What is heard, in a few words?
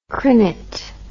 "crinet", English